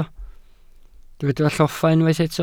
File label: "lloffa", Welsh